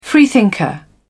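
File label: "freethinker", British English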